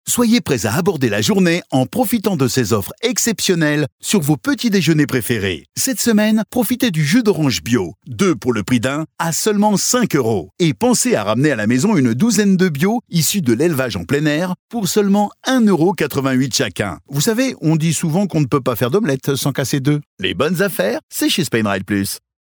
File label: Casual Decontrate